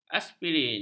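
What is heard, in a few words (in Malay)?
"aspirin", Malay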